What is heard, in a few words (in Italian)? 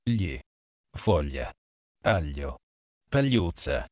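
gli, italiano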